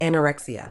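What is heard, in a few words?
"anorexia", British English; ˌæn.əˈrek.siː.ə; an-uh-RECK-see-uh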